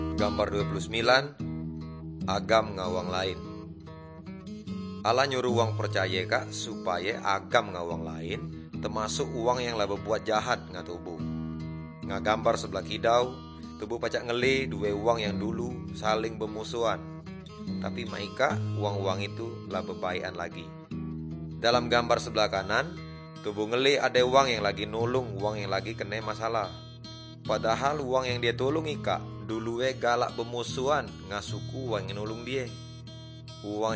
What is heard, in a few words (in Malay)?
good news melayu tanjung raja